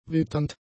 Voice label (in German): "witternd", German; adjectives